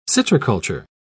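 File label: "citriculture", British English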